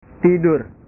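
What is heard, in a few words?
"tidur", Indonesian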